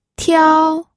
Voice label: "tiao1", pinyin